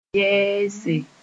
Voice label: "yɛɛse", Mooré